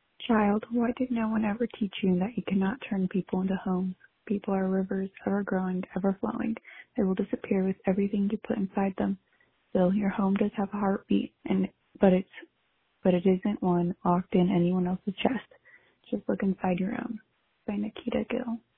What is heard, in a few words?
Voicemail